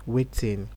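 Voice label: "wetin", Nigerian Pidgin, male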